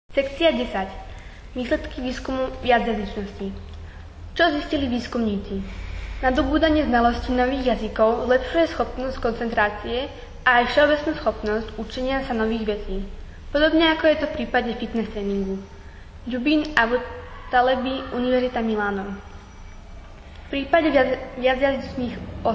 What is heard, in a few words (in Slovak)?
slovensky; Station 10 forschungsergebnisse zu mehrsprachigkeit